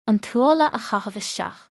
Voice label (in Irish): an tuáille a chaitheamh isteach, Irish